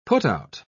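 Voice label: "putout", British English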